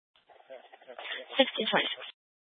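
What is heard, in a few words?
Police 1 Dispatch, Scanner audio recording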